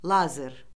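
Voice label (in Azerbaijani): "lazer", Azerbaijani; Orfoqrafiya Lüğəti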